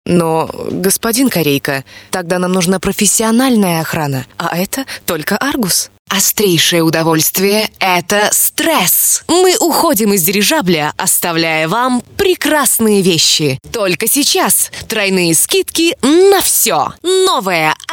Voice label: russian